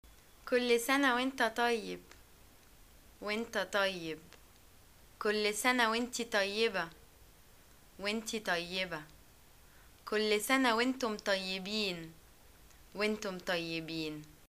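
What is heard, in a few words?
greeting celebration, Egyptian Arabic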